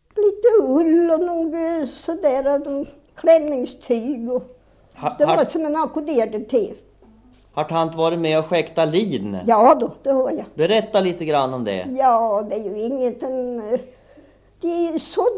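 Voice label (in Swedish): Kättilstad Östergötland, dialekter; Folkminnen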